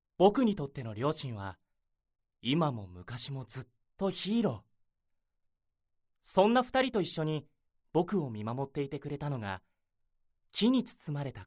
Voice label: narration